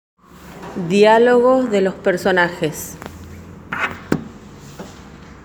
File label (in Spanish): Nota de voz; texto teatral